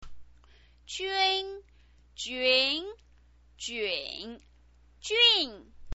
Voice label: "jun", chinese pinyin

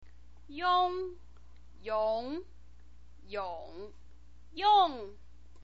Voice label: "iong", chinese pinyin

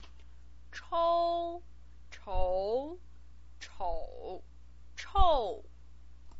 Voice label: "chou", Chinese pinyin

